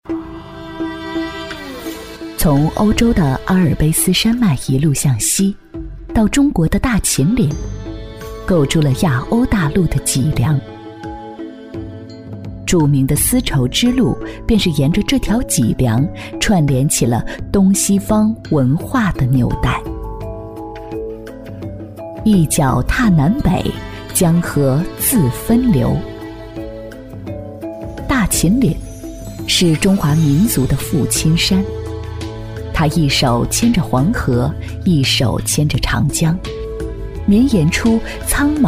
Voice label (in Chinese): 女, 纪录片, 秦岭生态, 记录片配音 舒缓; 纪实记录; CCTV; 大气知性